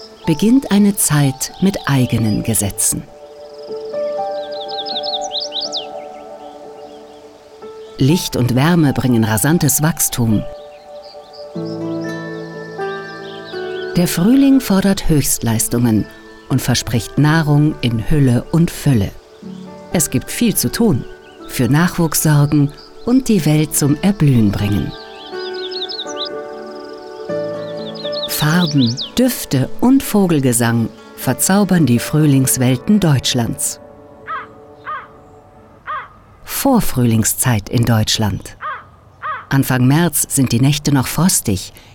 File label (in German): Doku Winterwelt; German